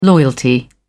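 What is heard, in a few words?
"loyalty", British English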